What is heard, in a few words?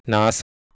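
"nh2s", Proto-Indo-European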